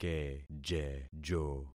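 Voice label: "kejejo", concatenated syllables; Affixing experiment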